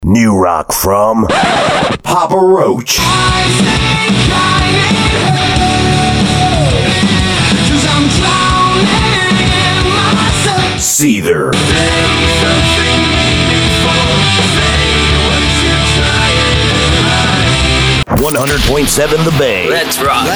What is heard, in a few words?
Imaging